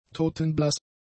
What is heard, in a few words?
"totenblass", German; adjectives